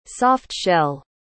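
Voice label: "soft-shell", English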